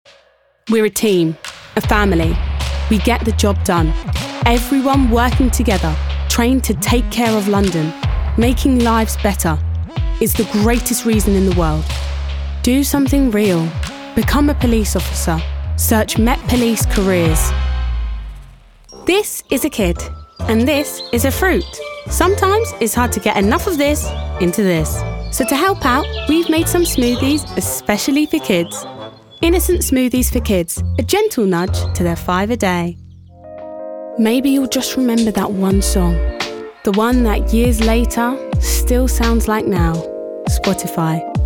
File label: Commercial